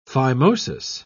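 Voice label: "phimosis", British English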